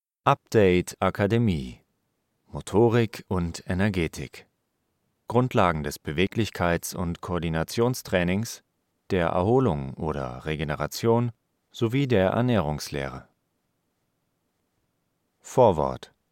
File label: Voice Over Narration; Voice Over Demo